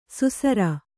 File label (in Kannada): "ಸುಸರ", Kannada; susara; noun; a melodious, pleasing sound.